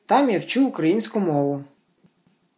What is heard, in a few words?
dialogue, Ukrainian